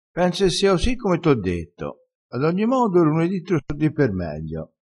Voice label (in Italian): "per meglio", crespinese